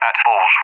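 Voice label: "at bulls", English; UK; DCS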